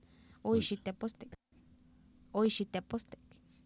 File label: ooixitepostek, Oapan minimal pairs female; Oapan minimal pairs 1998